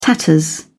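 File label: "tatters", British English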